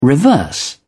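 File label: "reverse", British English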